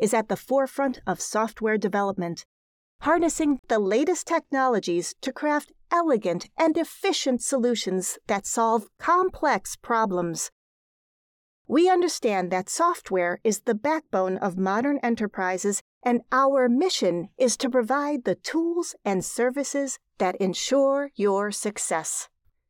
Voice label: Business Corporate

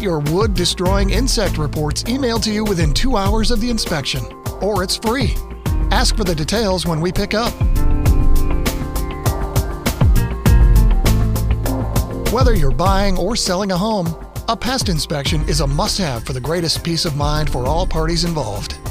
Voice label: On Hold

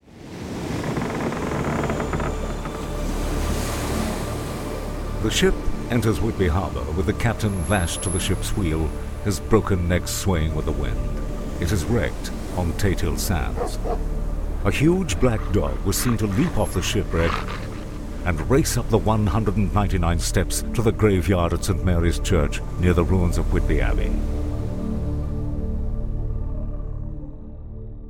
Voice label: audio guide; DRACULA

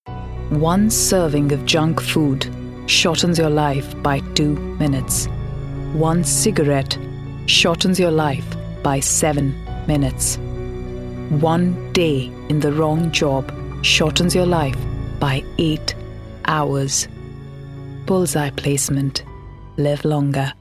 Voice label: Indian English Voicereel

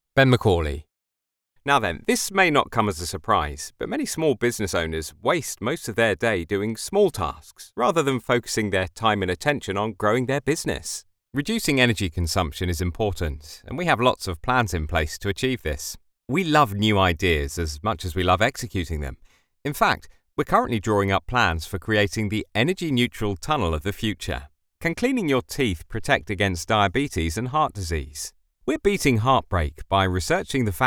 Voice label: CORPORATE

